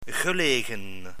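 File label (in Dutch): "gelegen", Dutch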